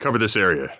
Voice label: Radio bot hold; Phoenix Heavy